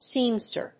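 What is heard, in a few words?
"Seamster", English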